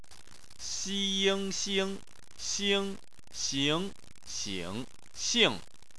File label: "xing", chinese